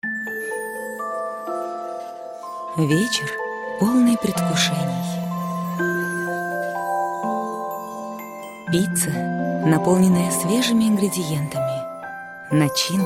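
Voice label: Russian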